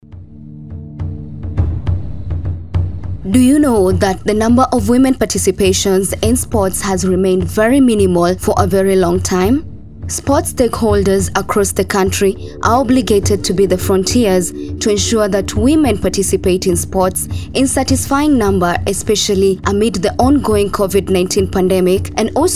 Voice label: english radio spot